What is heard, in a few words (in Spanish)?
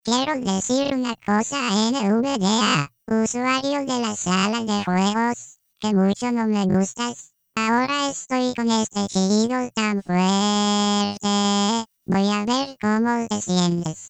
chillido; O jaws também canta!; Español